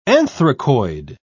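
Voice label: "anthracoid", British English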